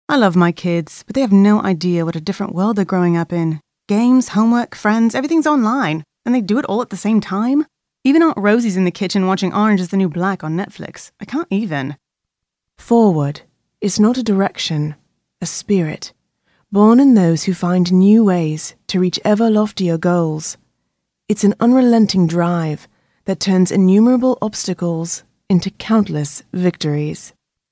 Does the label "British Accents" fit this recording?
Yes